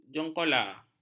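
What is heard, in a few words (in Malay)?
"jongkaka", Malay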